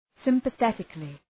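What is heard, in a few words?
"sympathetically", English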